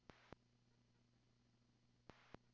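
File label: P25 dispatch radio; NC VIPER P25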